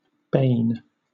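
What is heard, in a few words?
"bane", British English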